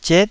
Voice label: "ziet9", Taiwanese syllable

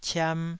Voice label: "ciam2", taiwanese

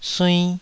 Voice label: "suinn2", taiwanese Syllables

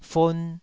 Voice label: "fon2", taiwanese syllables

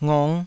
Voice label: "ngong5", Taiwanese

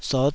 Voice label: "sot5", taiwanese Syllables

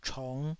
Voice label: "chong5", taiwanese

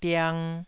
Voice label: "diang2", Taiwanese

